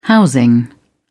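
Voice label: "housing", British English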